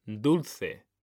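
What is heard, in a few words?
"dulce", Spanish, male; mekanta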